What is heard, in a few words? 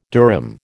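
"durum", American English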